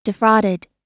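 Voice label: "defrauded", US English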